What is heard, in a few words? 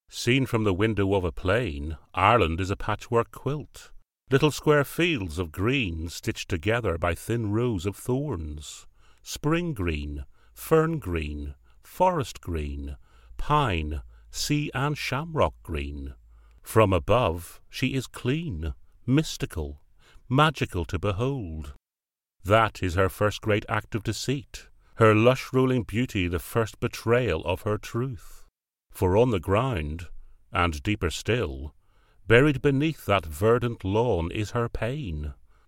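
English Irish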